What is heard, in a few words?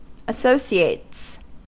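"associates" English, US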